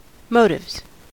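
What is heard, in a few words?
"motives", US English; pronunciations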